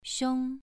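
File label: "xiong" Mandarin Chinese, second tone, pronunciation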